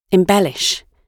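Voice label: "embellish", English; oxford api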